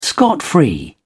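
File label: "scot free", British English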